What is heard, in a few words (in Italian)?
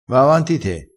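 "ave paura della gatta", dialettale crespinese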